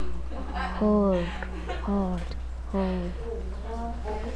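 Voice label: "hold", spoken word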